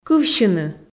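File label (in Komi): "кывсьыны", Komi, female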